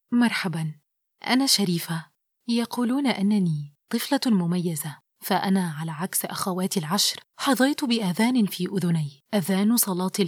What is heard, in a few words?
Audiobook, Character roll, talking; Narration; Sharifa